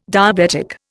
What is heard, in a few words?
"diabetic", Indian